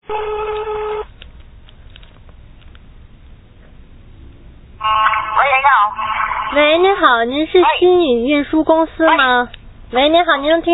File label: Telephone conversation